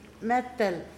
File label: "tenth day of month", Pingelapese